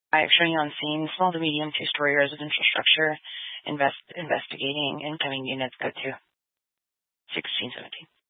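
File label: Incident Tactical 1, Scanner audio recording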